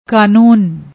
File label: "kanoon", Punjabi